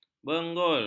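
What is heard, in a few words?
"benggul", Malay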